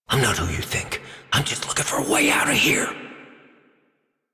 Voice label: Adult, en, Dialog